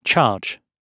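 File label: "charge", British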